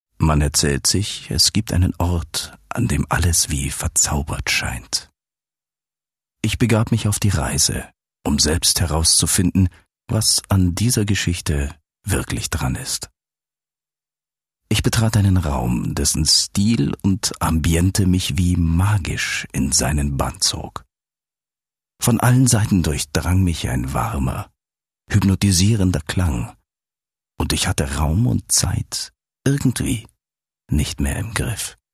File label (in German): erzaehler maerchenonkel